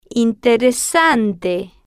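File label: "interesante", slow, Spanish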